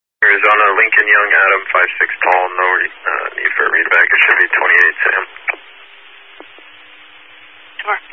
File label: Highway Patrol, Phoenix Metro East Area, scanner